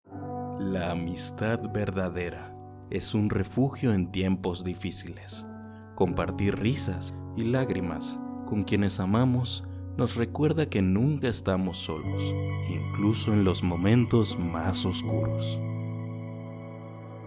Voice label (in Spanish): Emotiva musicalizado, locutor